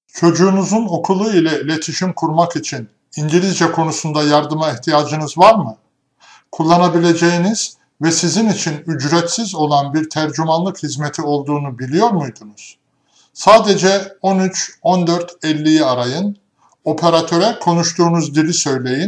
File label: turkish; public schools; going to a public school